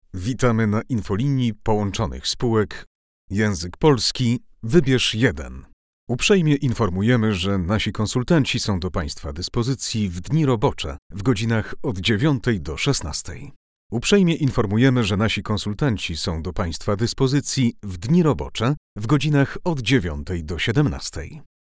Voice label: polish male

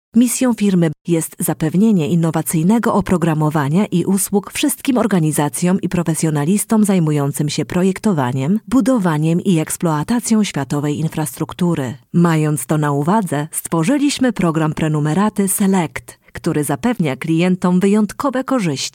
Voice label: polish female

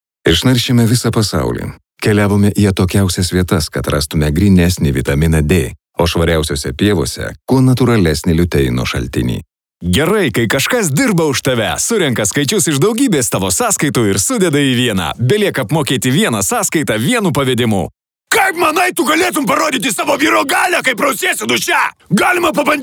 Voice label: lithuanian male

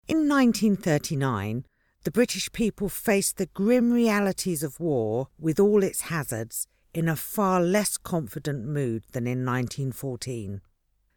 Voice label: english UK female

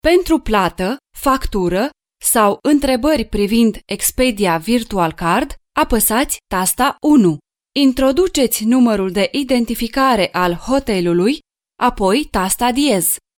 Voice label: romanian female

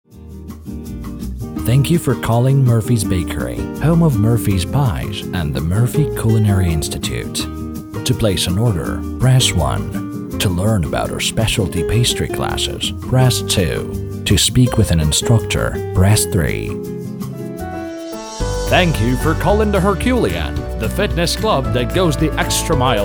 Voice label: english male

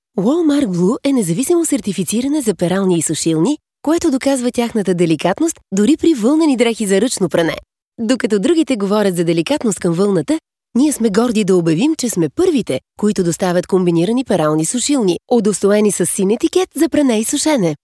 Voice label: bulgarian female

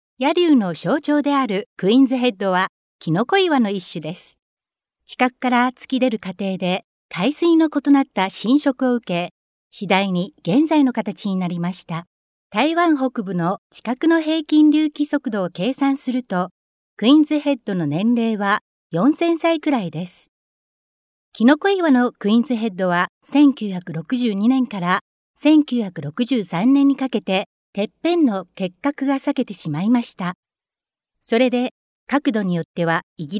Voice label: japanese female

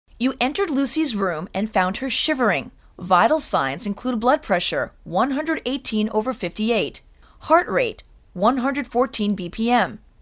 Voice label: english female

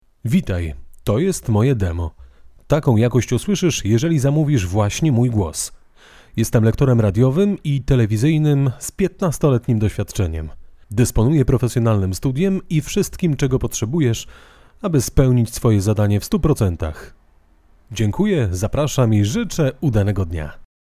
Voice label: polish male

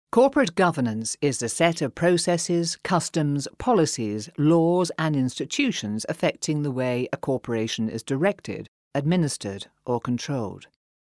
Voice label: english UK female

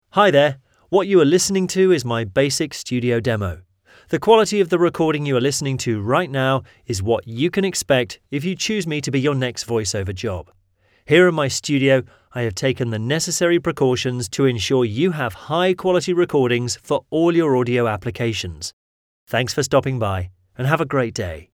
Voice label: english UK male

